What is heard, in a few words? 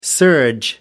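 "surge", English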